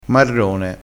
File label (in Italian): "marrone", crespinese, vocabolario dialettale